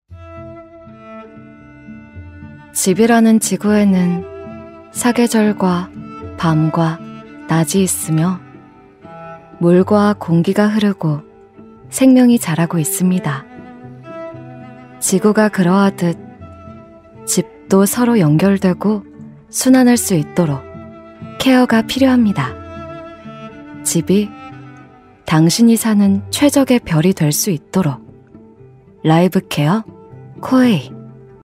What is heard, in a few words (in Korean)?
차분한 느낌의 광고